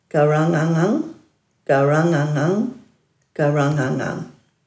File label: "garanganganj", Dhurga; dulagaal